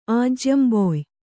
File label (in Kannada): "ಆಜ್ಯಂಬೊಯ್", Kannada; ājyamboy; (Verb)